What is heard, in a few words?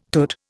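"tut", Indian English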